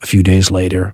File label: few days later announcer